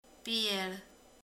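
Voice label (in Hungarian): "iszik", Hungarian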